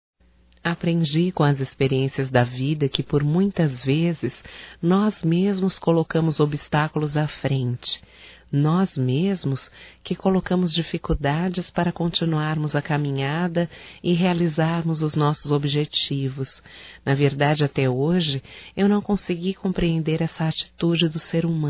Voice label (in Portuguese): ROMANTICA(F), Românticas, fem